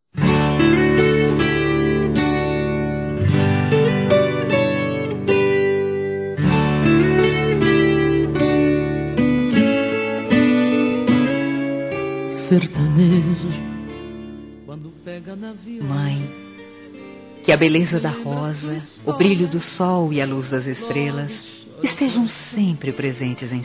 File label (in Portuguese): ANIV. MÃE, Telemensagens; Aniversários; Aniv Familiar